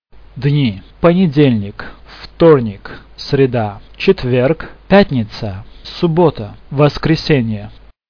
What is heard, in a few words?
russian days